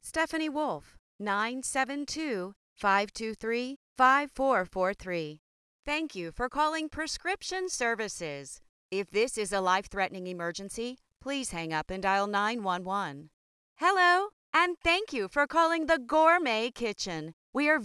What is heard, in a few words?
IVR